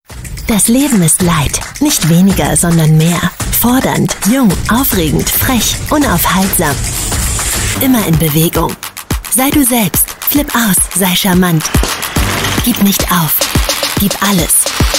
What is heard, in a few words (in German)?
Sprecherin